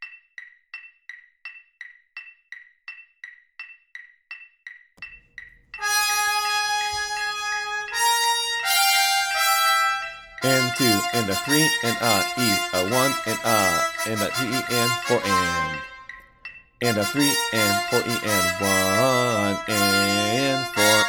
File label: counting, melody; marching band